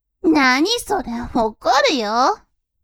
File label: woman